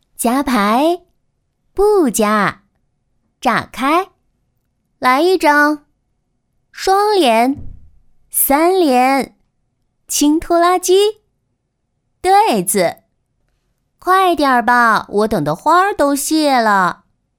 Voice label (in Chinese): 女 棋牌 甜美御姐